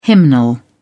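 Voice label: "hymnal", British English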